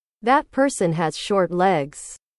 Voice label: english

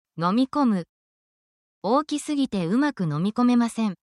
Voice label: japanese eating drinking actions; itadakimasu

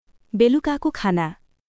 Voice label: Nepali, basic phrases

